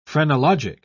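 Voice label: "phrenologic", British English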